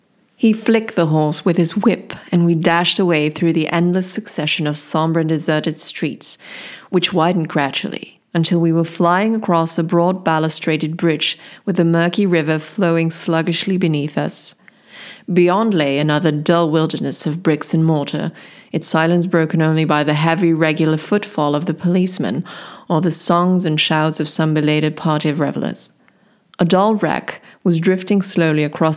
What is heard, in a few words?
UK English